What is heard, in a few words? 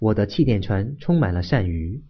hovercraft, mandarin